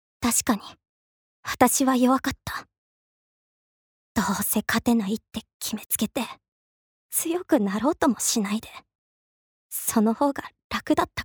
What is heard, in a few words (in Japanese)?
アニメ